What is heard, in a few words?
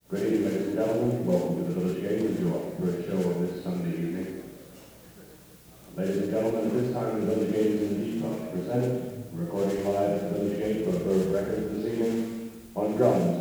Announcement; Chip Monck